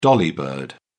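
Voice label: "dolly bird", British English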